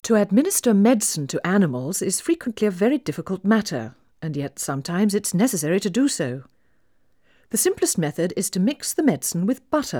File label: Female speech English Mono output noised; 4bit